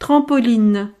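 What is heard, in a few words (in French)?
"trampoline", French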